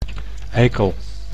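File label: "eikel", Dutch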